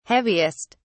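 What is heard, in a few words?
"heaviest", British English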